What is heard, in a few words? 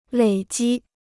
"累积", Chinese